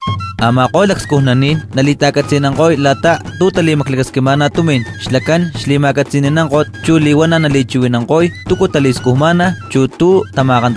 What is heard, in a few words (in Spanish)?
totonaca spot